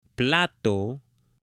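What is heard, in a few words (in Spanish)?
"PLATO", Spanish, male; AppLenguaje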